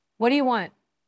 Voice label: Voice Acting es